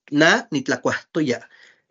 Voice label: na nitlakuajtoya, Náhuatl; yo había comido; I had eaten